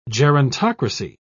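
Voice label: "gerontocracy", British English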